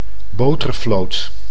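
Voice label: "botervloot", Dutch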